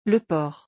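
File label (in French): "le port", French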